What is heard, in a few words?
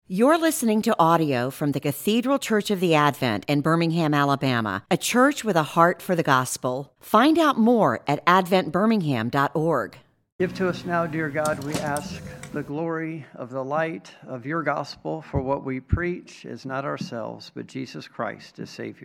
Sermon